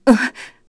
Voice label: Vox, kr; Artemia; kingsraid

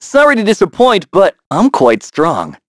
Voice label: Vox Skill, en; kingsraid

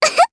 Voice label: Vox Happy, jp; Annette; kingsraid

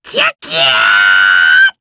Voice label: Vox Casting, jp; Lakrak; kingsraid

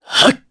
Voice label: Casting, jp; kingsraid

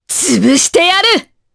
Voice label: Vox Skill, jp; Priscilla; kingsraid

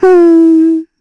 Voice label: Vox Think, kr; heroes; Estelle; kingsraid

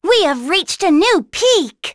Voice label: Vox, en; kingsraid

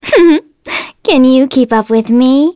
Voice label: vox, en; kingsraid; voices heroes

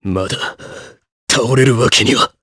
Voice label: Dead, jp; Riheet; kingsraid

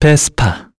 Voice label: Vox, kr; Esker; Vespa; kingsraid

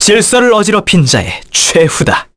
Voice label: Victory, kr; Arch; kingsraid

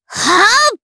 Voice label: Vox Casting jp; Miruru; kingsraid; voices heroes

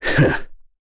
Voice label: Happy; KaselB; kingsraid; voices heroes

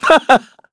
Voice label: Happy; Shakmeh; kingsraid; voices heroes

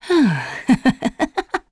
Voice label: Shamilla Vox Happy, voices heroes en; kingsraid-audio

